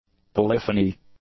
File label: "polyphony", English